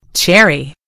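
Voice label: "cherry", American English; gcompris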